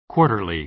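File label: "quarterly", American English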